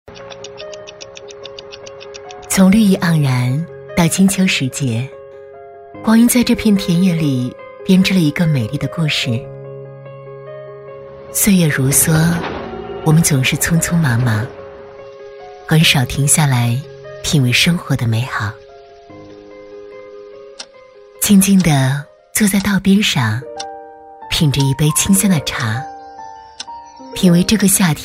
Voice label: 女 微电影 温柔品质; 甜美知性; 温柔知性

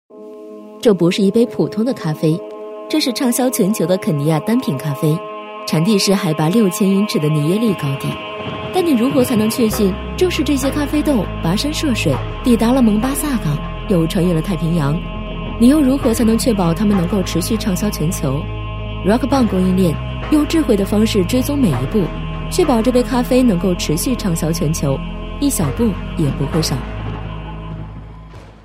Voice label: 女, 品质广告, 酷酷的讲述; 甜美亲和; 温柔知性; Rock Bong供应链-咖啡的旅行

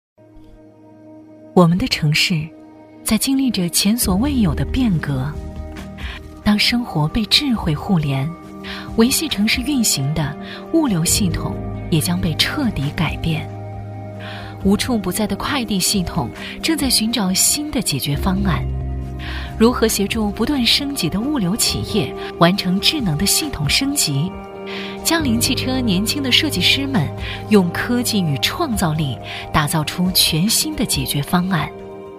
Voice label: 女 旁白解说 温情讲述; 江铃&中通; 质感知性; 成熟知性

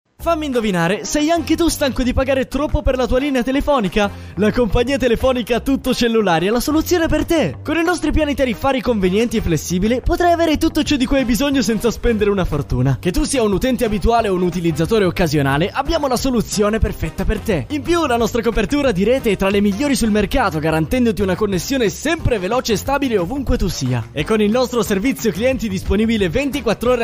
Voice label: 意大利男声

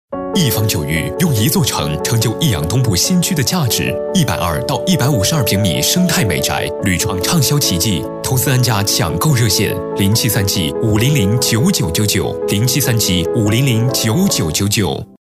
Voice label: 男 房地产广告 年轻质感; 壹方玖誉; 男339-年轻稳重; 年轻时尚

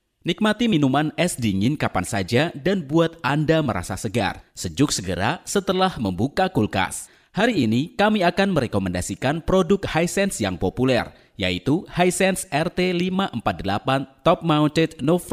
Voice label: 印尼语男声

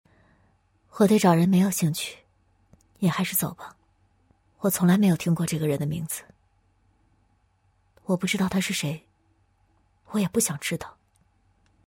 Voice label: 女 人物角色 高冷; 素人自然

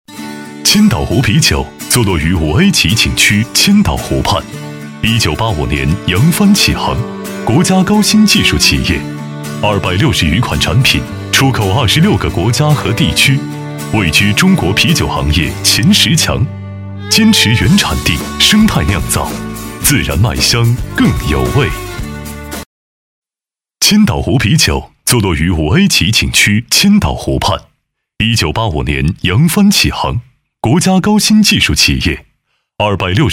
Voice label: 男 品质广告 活力时尚; 千岛湖啤酒; 茶酒文化宣传配音

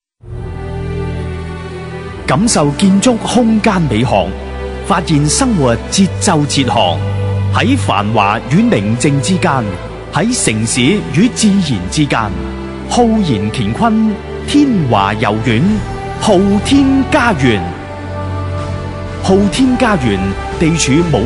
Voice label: 男 粤 地产

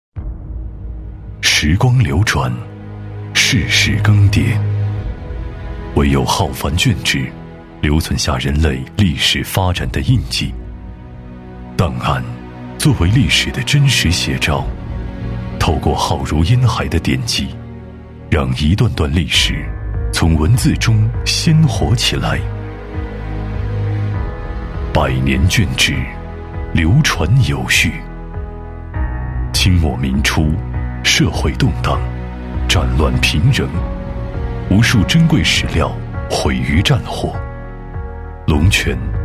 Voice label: 男 纪录片 磁性厚重; 龙泉司法

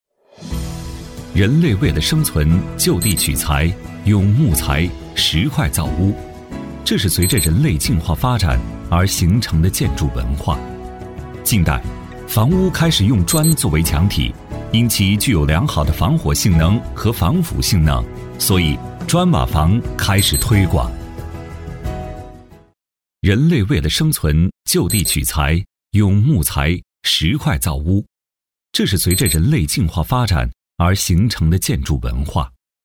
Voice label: 男 产品介绍 质感平实; 砖瓦房; 磁性舒缓; 年轻稳重

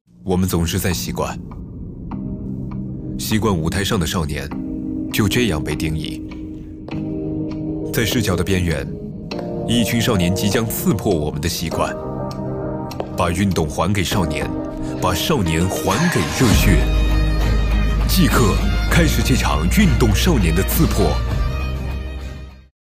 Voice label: 男 TVC先导广告 厚重大气; 年轻稳重; 年轻时尚

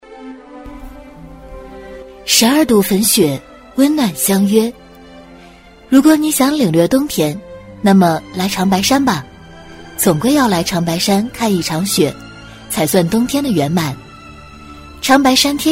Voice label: 女, 纪录片; 长白山天池; 质感磁性

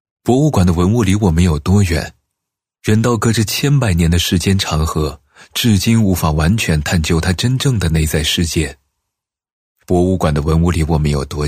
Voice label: 男 纪录片 年轻磁性; 博物馆; 年轻稳重

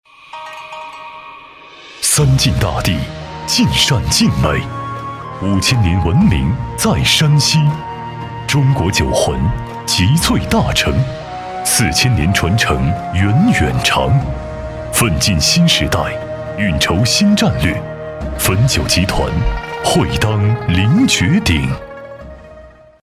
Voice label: 男 品质广告 高端质感; 汾酒; 茶酒文化宣传配音

